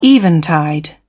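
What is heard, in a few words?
"eventide", British English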